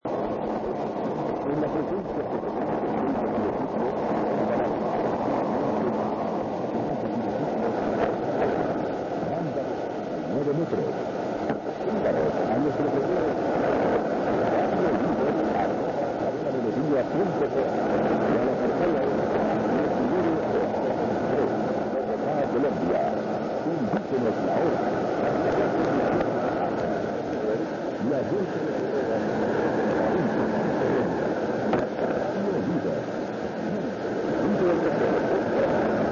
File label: Radio Lider 6139.78kHz